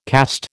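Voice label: "caste", American English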